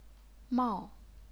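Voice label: "mao", fourth tone, chinese pinyin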